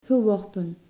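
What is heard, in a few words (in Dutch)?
"geworpen", Dutch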